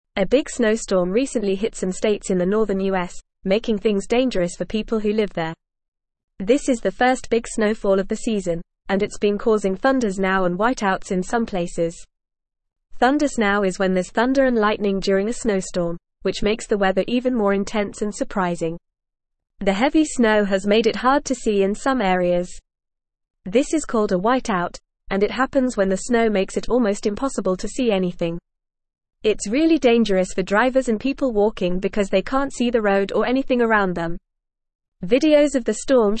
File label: English Newsroom Upper Intermediate FAST Reading; Winter Storm Causes Chaos and Dangerous Travel Cond